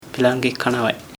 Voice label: blind male; pilangi